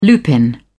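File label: "lupin", British English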